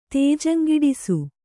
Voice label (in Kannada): "ತೇಜಂಗಿಡಿಸು", Kannada